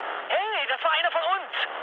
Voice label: player killed ally, mood med, speech ge; War Thunder

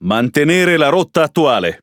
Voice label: Speech Pilots Bomber Airborne, IT; World Of Warships

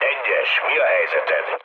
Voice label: hun chat, speech hun; War Thunder

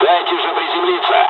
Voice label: dialogs russian; War Thunder

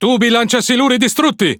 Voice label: Speech Defective Modules Torpedo Destroyed, IT; World Of Warships

